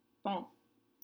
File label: "pan", French; side